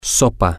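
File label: Spanish, soup, male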